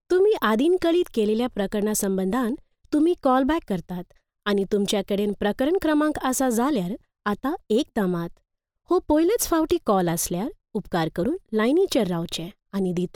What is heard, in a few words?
Konkani IVR